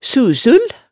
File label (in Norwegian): "susul", hemsedalsmaalet